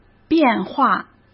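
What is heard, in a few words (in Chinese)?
"变化", Mandarin Chinese; hsk; chinese flashcards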